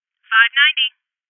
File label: Voices Alarm State Female